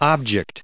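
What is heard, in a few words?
"object", US English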